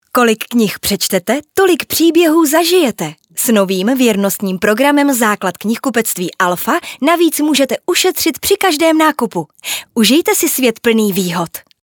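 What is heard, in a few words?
Czech, female, commercial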